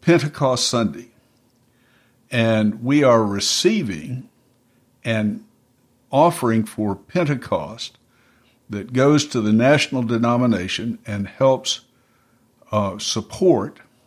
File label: Announcement